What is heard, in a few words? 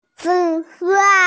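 "fertilizer", hearing impaired; fei2wo4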